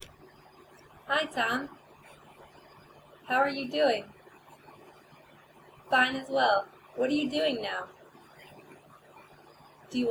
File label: Female Part No Noise